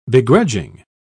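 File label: "begrudging", en, uk British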